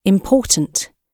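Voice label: "important", British English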